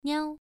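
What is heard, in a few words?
"niao", tone 1, pronunciation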